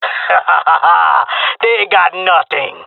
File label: round winning, male; jungle; Counter Strike Model Pack; CS:GO